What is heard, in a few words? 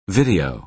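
"video", American English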